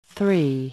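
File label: "Three", English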